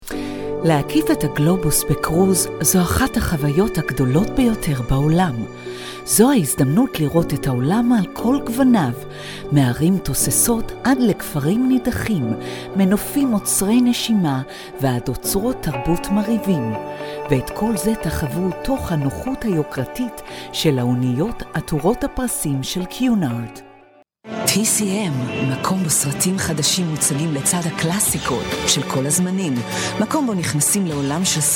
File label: female Hebrew compilation